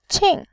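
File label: "qin", Mandarin Chinese, fourth tone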